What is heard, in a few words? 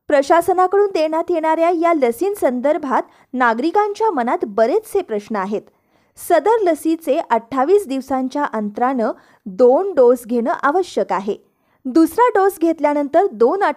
explain marathi